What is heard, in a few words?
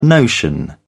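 "notion", British English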